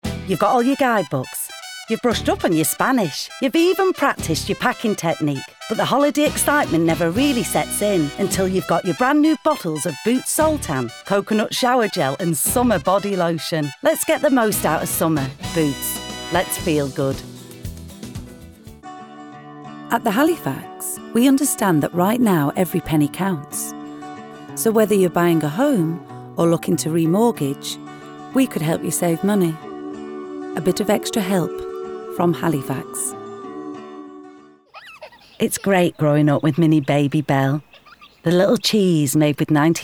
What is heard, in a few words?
commercial